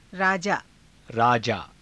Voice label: "raajaa", hindi

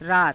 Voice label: "raata", Hindi

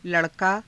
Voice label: "la rakaa", Hindi

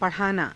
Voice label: "pa_rhaanaa", Hindi

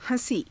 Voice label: "ha~sii", Hindi

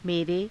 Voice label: "mere", Hindi

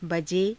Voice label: "baje", hindi

